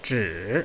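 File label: "zhi", Chinese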